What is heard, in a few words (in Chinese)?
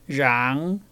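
rhang3, 客語 海陸腔 鼻尾韻; rhangˇ; 映; 拼音查詢：【海陸腔】rhang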